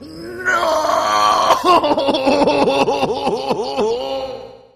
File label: nooooohohohohoho